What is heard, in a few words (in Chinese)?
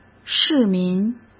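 "市民" Mandarin Chinese; chinese-flashcards; hsk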